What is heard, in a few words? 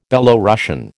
"Belorussian", American